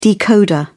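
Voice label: "decoder", British English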